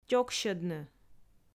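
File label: "тёксьӧдны", Komi, female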